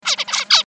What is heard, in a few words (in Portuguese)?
cancao mandarim normal